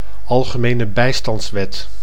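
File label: "Algemene Bijstandswet", Dutch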